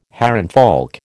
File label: "Herrenvolk", American